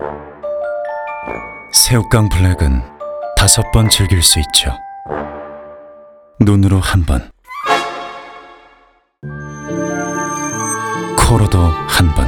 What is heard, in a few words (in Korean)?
광고 시크하고 도도한 과자 광고; 새우깡 블랙